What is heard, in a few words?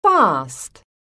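"FAST", English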